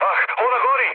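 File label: Serbian on fire mood low, speech; War Thunder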